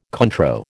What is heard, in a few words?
"Cointreau", American